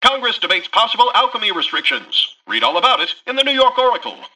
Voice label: Newscaster headline